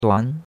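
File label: "duan", Chinese, second tone; Cours-de-Chinois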